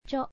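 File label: "cho", Japanese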